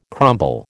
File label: "crumble", American